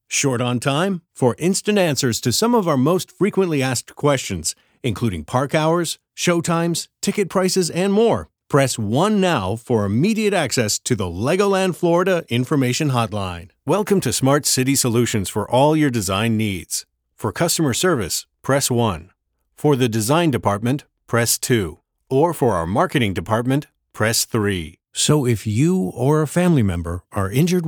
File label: IVR MOH; Full Range Voice Over Demos